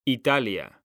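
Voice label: "Italia", Spanish, male; mekanta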